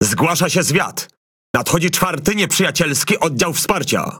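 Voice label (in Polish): ENEMY REINFORCEMENT LEFT SPAWN, Polish; PVE; World Of Warships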